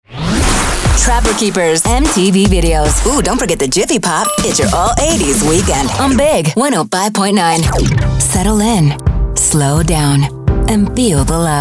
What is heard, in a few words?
AC IMAGING, Female